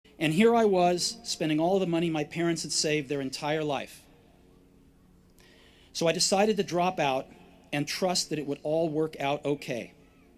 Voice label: Commencement Address; Steve Jobs; 2005; Stanford